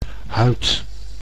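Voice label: "huid", Dutch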